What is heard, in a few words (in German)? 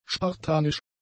"spartanisch", german; adjectives